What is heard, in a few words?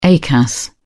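"acas", English, British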